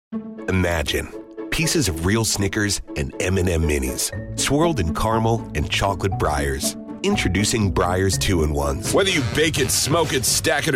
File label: Commercial